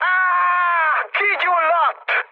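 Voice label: Hungarian on fire mood high, speech; War Thunder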